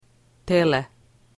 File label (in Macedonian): "tele", Macedonian